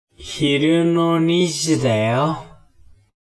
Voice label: man